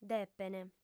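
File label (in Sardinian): "depent", female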